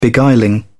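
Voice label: "beguiling", en, uk British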